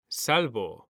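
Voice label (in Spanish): "salvo", male; mekanta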